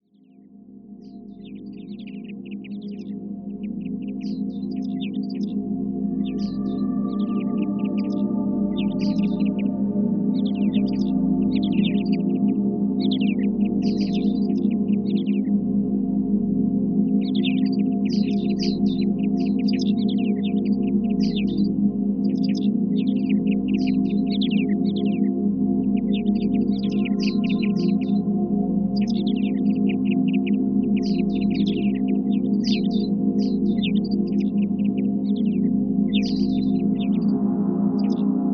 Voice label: Heart Connection Guided Meditation with Forest Sounds